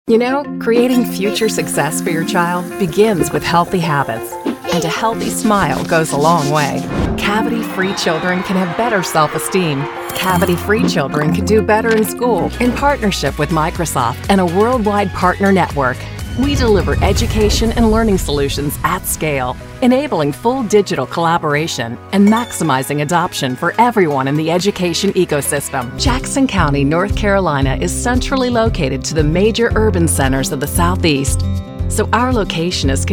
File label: Explainer